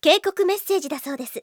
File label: alert, Anime Girls; Windows; Bing Japan; Nanae